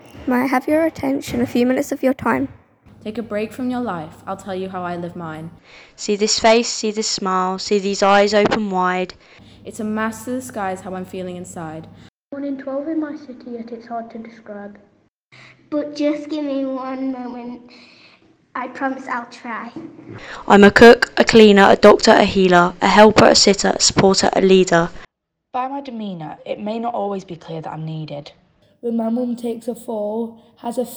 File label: poem recorded by Young Carers; Who Am I; National Voice